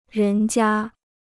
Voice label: "人家", Chinese